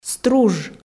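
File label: "струж", Komi, female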